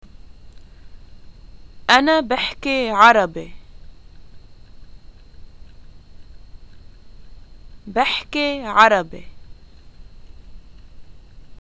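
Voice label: Lebanese introductions I speak Arabic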